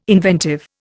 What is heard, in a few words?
"inventive", Indian